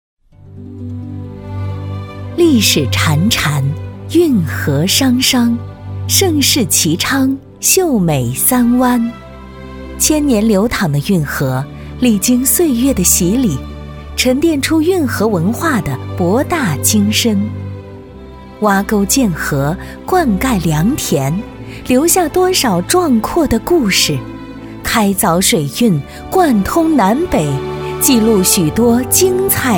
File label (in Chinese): 女 大气温婉